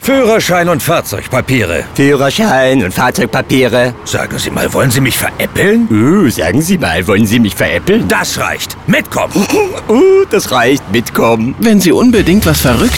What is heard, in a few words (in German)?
Funkspot; HintertuxerGletscher; Führerschein; bunch sound; Berlin; Funkspotproduktion